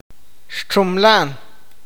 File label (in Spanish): "pensar", awakateko